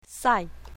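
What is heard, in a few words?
"sai4", Chinese pinyin, south, female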